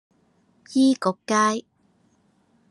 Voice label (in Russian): Голоса Гонконгский; commonvoice